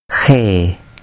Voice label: "khe", urdu

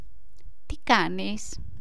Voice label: "ti kaneis", greek

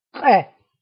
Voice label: "'q", tigrinya letters alphabet sounds

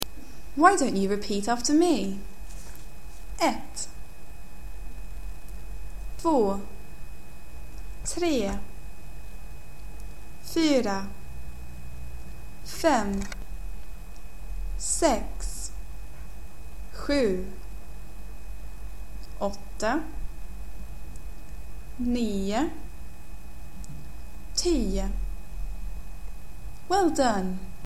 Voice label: numbers with intro, swedish

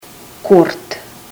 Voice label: sort, russian

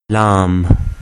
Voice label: "laam", Urdu

